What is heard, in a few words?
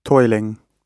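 "toiling", British English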